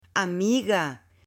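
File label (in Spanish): "amiga", Spanish, male; AppLenguaje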